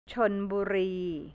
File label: "Chonburi", Thai, central; ชลบุรี; แผนที่ประเทศไทย